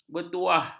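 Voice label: "bertuah", Malay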